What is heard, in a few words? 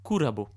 heal, romans male